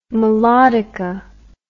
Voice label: "melodica", English